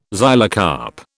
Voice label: "xylocarp", British